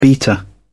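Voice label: "beta", British English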